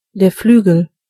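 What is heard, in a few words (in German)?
"wing", German; gcompris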